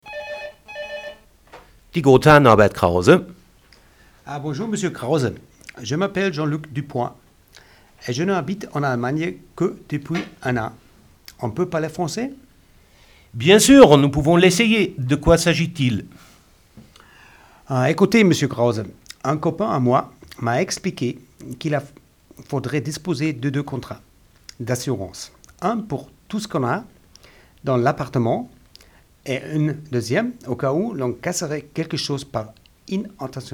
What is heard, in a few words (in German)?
franzoesisch